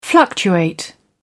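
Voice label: "fluctuating", British English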